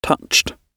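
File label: "touched", British English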